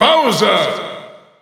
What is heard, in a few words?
German Announcer; Bowser; SSBU